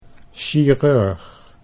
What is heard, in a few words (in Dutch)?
"chirurg", Dutch